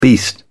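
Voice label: "beast", British English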